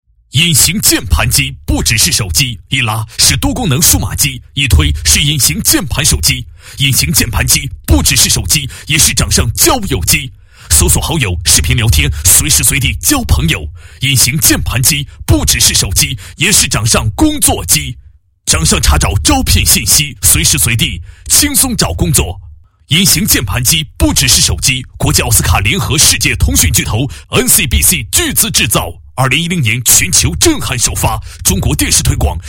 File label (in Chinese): 男40 直销广告, 国语男声配音; 隐形键盘机